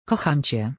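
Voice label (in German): Polnisch, ich liebe dich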